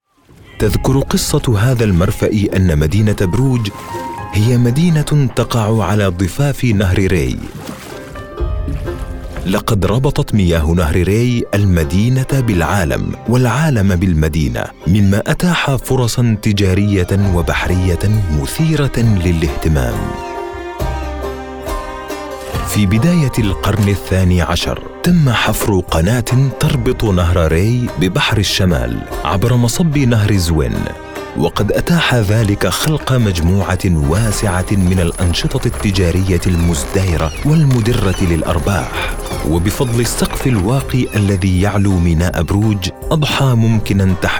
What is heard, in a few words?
Arabic Documentary Narration; voice over